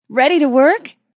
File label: Woman Says Ready To Work; Talking